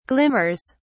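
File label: "glimmers", British English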